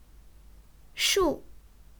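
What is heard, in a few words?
"shu4", Chinese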